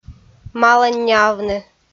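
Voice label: "маланняавны", Komi, female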